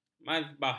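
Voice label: "mazbah", Malay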